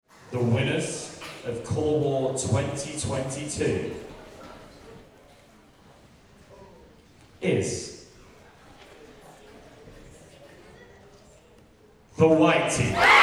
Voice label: Announcement; Winner